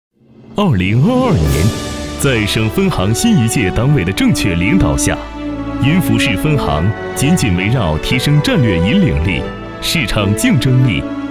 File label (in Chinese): 专题 工作回顾, 男